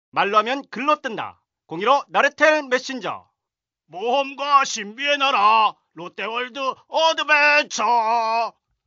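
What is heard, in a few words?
Korean male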